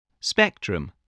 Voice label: "spectrum", English voice

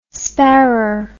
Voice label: "sparrer", English

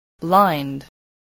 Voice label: "blind", spelling

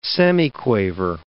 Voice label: "semiquaver", English

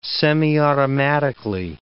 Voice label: "semiautomatically", English

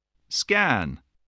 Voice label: "scan", voice spelling

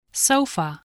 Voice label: "sofa", English voice

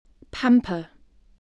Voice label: "pamper", English voice

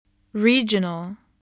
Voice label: "regional", spelling voice

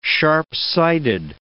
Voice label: "sharp-sighted", English

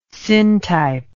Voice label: "syntype", English word

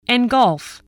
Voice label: "engulf", spelling

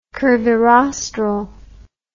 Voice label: "curvirostral", english

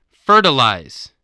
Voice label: "fertilize", spelling

